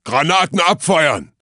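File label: Voices Units Axis Grenadier attack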